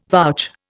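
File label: "vouch", Indian English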